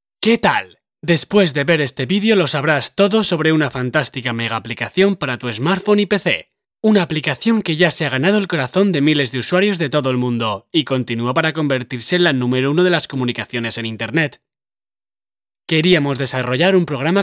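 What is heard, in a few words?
Spanish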